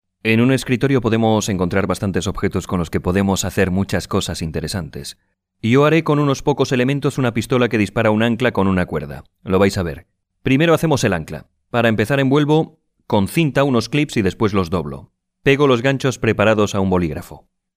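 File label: Spanish male; lektoring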